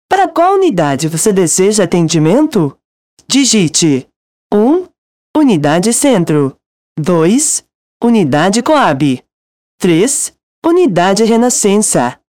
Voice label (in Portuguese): espera telefonica